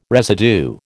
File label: "residue", American